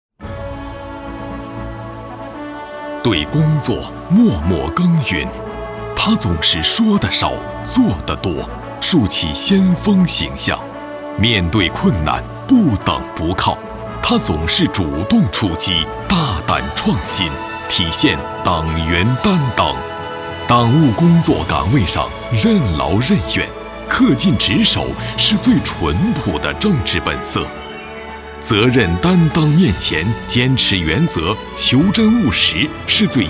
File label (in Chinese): 男 颁奖词 个人类 情感饱满 抑扬顿挫